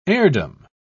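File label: "heirdom", British English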